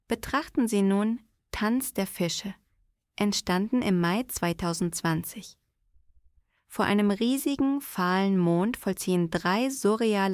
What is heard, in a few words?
voice audio guide; tanz der fische